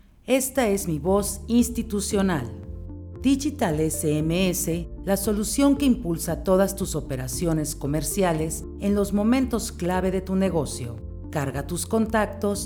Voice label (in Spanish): INSTITUCIONAL